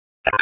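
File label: Dispatch 1, P25; WSO DISP 1; wake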